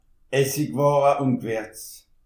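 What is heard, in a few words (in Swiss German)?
"essiware", Alsatian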